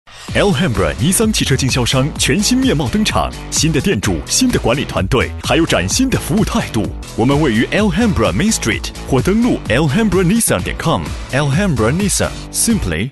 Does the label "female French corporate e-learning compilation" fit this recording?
No